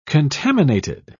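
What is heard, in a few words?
"contaminated", British English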